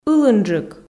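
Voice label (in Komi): "ылынджык", Komi, female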